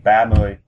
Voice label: thirty vietnamese

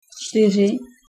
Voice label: four, Czech

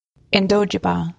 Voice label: "I'm from", ojibwe

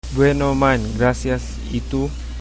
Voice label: i'm fine, chabacano

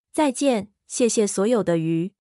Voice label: "So Long, and Thanks for All the Fish", Mandarin

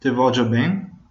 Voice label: I love you, venetian

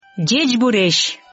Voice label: hello, Udmurt

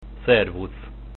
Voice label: hello, Hungarian

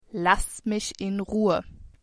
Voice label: leave me alone, german

